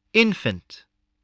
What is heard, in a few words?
"infant", spelling voice